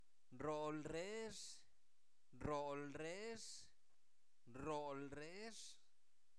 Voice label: cantan (ellos), Zapoteco, santo domingo albarradas; INALI; verbos

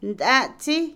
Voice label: cuchara, Otomí, mujer; Comida; INALI

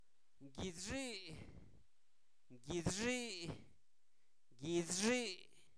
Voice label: gripa, Zapoteco, santo domingo albarradas; INALI; enfermedades

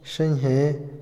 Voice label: fondo, Otomí, hombre; INALI

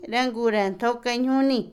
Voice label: cocina (lugar), Otomí, mujer; Casa; INALI

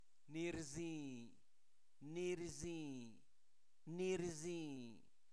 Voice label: Zapoteco Albarradas, santo domingo albarradas; adjetivos; oreado; INALI

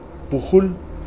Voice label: desatar, Kakchikel

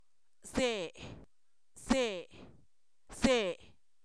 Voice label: así, Zapoteco, san miguel albarradas; adverbios

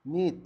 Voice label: "Mitla", Zapoteco Magdalena Ocotlan; Topónimos; INALI; Haspelmath

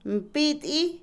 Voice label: orinar, Otomí, mujer; Cuerpo; INALI

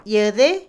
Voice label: liberar, Otomí, mujer; INALI

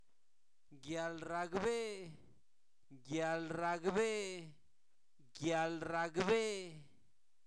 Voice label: conocimiento, Zapoteco, santo domingo albarradas; INALI; sustantivos

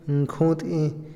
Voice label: impuesto, Otomí, hombre; Posesión; INALI

